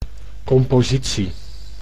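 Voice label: "compositie", Dutch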